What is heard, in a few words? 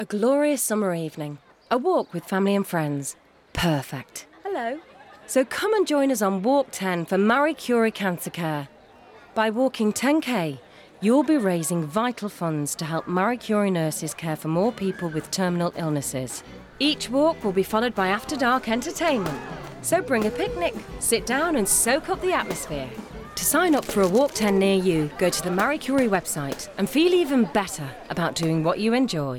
national radio ad; Movie House Radio